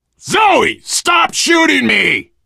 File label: friendly fire, biker, voice; left4dead; survivor; Source engine